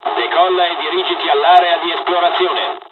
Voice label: mission fly, dialogs italian; War Thunder